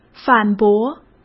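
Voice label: "反驳" Mandarin Chinese; hsk; chinese-flashcards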